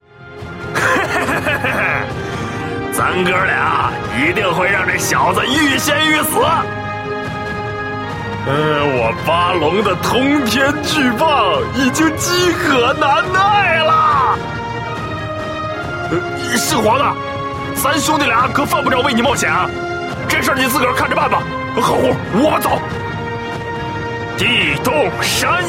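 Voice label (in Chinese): male, dashu zhuanghan (middle-aged burly man)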